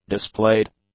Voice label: "displayed", English; adjectives